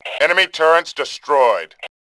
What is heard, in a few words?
turrets, Male; voice; Tribes2